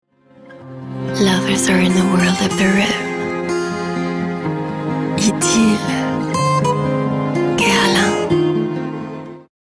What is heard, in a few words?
English; Guerlain Idylle